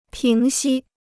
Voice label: "平息", Chinese

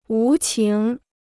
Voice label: "无情", Chinese

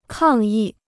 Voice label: "抗议", Chinese

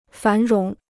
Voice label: "繁荣", Chinese

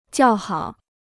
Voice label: "叫好", Chinese

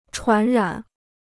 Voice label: "传染", Chinese

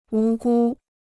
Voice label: "无辜", Chinese

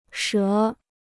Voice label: "折", Chinese

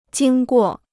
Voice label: "经过", Chinese

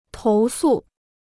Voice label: "投诉", Chinese

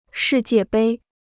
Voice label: "世界杯", Chinese

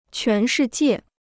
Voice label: "全世界", Chinese

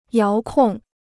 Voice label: "遥控", Chinese

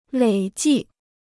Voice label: "累计", Chinese

